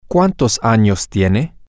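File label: how old are you, Spanish, male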